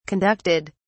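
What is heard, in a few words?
"conducted", British English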